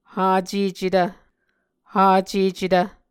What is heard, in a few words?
"hacecetv", muscogee